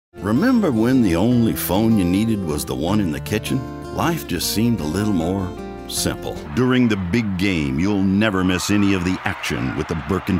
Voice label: Commercial, Male voices